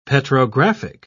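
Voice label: "petrographic", English, British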